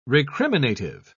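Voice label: "recriminative", British English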